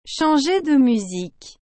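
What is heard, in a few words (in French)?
"changer de musique", French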